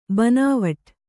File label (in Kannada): "ಬನಾವಟಿ", kannada